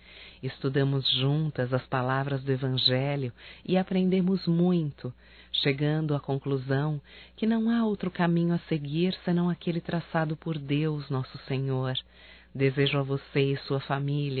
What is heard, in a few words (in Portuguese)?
NATAL ANO NOVO IRMA EM CRISTO F, fem; Telemensagens 2017